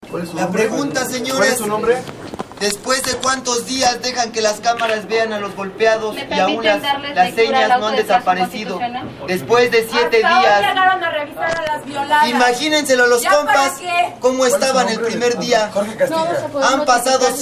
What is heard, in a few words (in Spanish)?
hombre herido; presas del penal de santiaguito; que es la otra